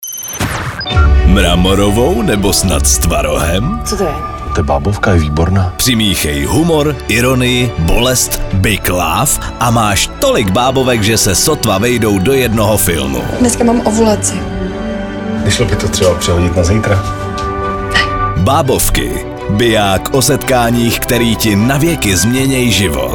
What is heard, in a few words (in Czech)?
promo babovky; evropa2